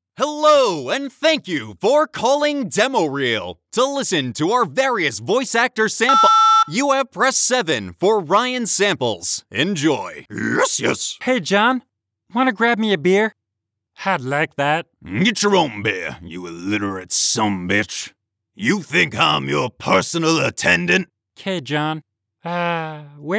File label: Character